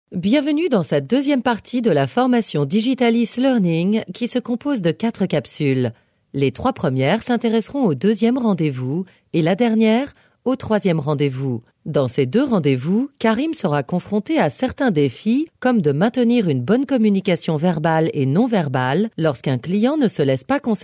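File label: French, female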